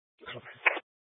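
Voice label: Sheriff Dispatch, scanner audio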